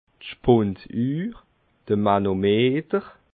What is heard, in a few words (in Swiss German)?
"spunduhr", alsace